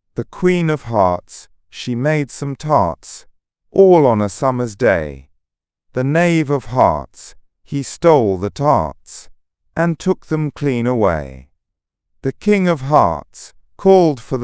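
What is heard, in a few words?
male British, classic rhymes; Queen of Hearts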